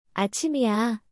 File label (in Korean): "아침이야", Korean; アチミヤ～